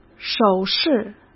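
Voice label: "手势" Mandarin Chinese; hsk; chinese-flashcards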